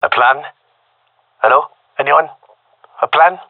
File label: request plan, gendarmerie male; vo